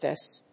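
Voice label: "VHS", English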